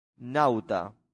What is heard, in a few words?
"nauta", Latin; santillana